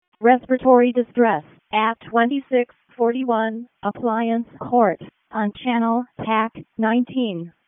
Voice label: EMS ALERT; Wake; p25

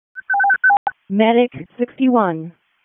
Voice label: EMS ALERT, p25; Wake

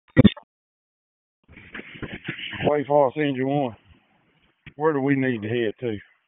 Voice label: FIRE OPS, p25; wake

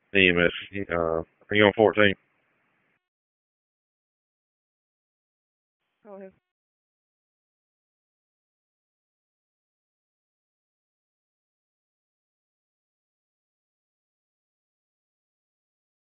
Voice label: PS TAC, p25; wake

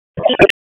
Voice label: Police North District Tac 1, p25; Raleigh; Wake

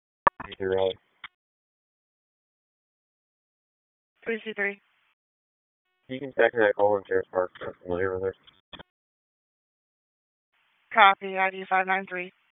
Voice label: Police Northeast District Disp, p25

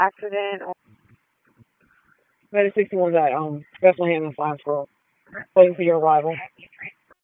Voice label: PS TAC, p25; wake p25

